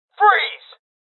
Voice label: Voices Freeze Male Young; Rebel Cops

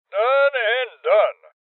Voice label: Voices Confirmation Male Old

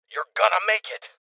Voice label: Voices Heal Male; rebel cops 2019 gamerip

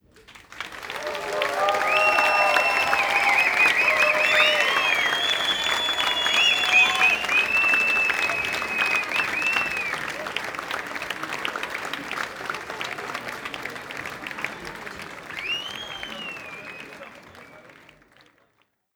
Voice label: VICTORY SPEECH INTRO

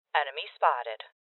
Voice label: Voices Enemy Spotted Female; rebel cops 2019 gamerip

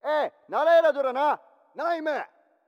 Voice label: VO archer shouting

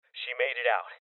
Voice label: Cop Evacuated Male Young; rebel cops 2019 gamerip

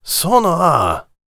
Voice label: warrior conversation emotion question

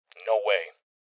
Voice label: Voices Refuse Male; rebel cops 2019 gamerip

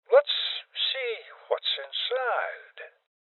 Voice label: Voices OpenSafe Male Old; rebel cops 2019

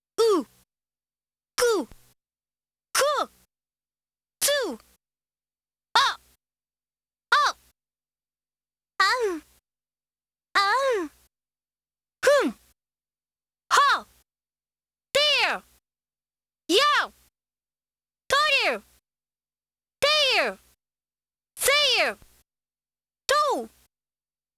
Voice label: Mysterious Power Voice; Touki Denshou Angel Eyes

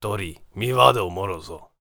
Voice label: warrior conversation emotion neutral

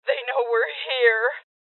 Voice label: Voices Alarm State Female; rebel cops 2019

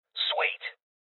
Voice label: Voices Loot Collect Male; rebel cops 2019 gamerip

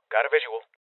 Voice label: Voices Enemy Spotted Male Young; rebel cops 2019

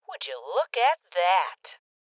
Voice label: Voices Loot Collect Female; rebel cops 2019

